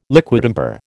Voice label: "liquidambar", American